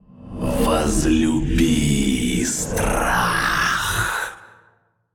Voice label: Male Old God In Play